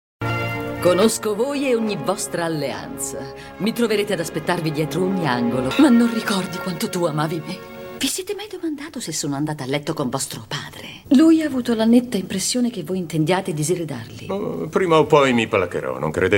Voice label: IT Fem; Decibel